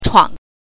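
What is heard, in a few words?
"chuang", Mandarin Chinese pinyin, neutral tone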